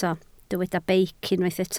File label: "beic", Welsh